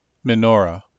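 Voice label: "menorah", American English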